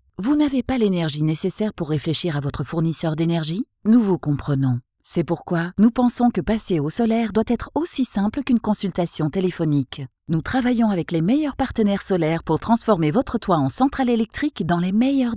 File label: french commercial; Belgique